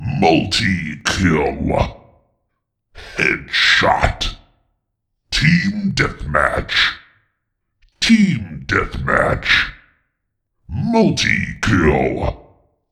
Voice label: Announcer Traditional; UT4